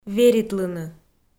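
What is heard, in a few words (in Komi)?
"веритлыны", Komi, female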